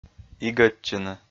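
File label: "игӧдчыны", Komi-Zyrian, male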